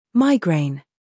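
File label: "scandal", American; migraine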